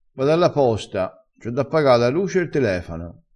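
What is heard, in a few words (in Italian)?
"telefano", dialettale, crespinese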